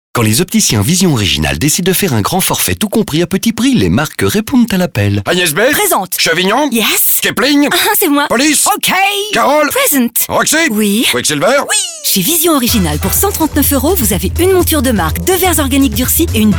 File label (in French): radio pub spot